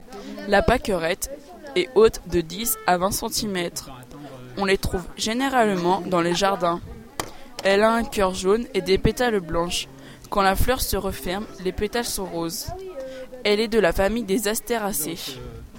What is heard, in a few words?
texte francais